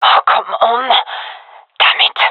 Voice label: scared, gendarmerie fem

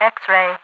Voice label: xray, overwatch radio voice; Half Life 2

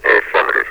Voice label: "affirmative", metropolice; Half Life 2

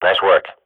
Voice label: radio bot end solid, swat; Counter Strike Model Pack; CS:GO

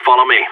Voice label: radio follow me; Counter Strike; CS:GO; seal

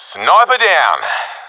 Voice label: i killed sniper, professional epic; vo; Counter Strike Model Pack; CS:GO

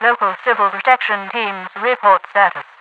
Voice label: teams report status, radio voice; Half Life 2

